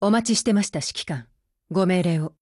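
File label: HELLO, Japanese; GF Alarm; AK15Mod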